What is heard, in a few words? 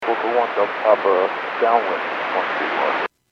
Airports 122.7, Radio; KSTE